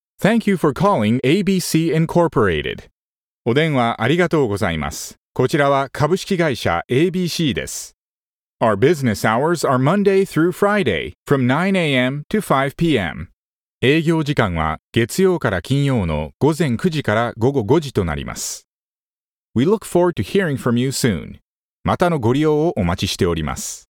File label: foreigner; 電話応答(英語・日本語)